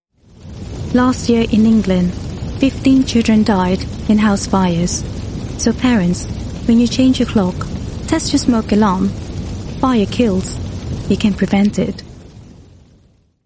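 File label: Malay English F Commercial, female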